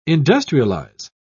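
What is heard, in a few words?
"industrialise", British English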